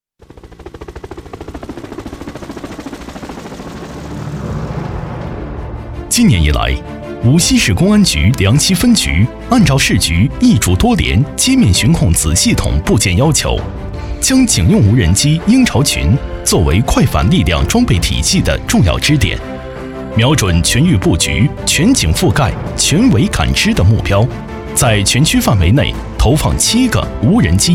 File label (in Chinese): 专题片